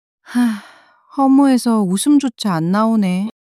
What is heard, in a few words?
sadness